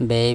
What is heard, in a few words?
"bei", Andamanese; bottle